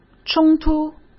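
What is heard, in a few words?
"冲突", Mandarin Chinese; chinese flashcards; hsk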